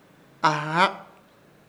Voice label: "AHRAK", Ishir Chamacoco; mesquinar